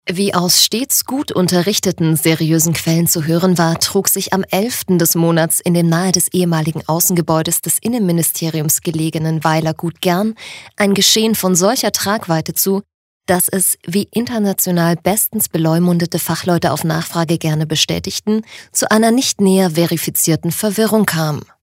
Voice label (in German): Trocken Neutral